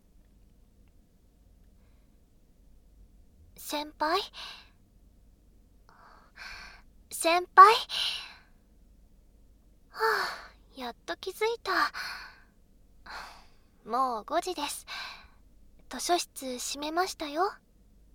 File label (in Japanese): 声のみ ノイズ除去なし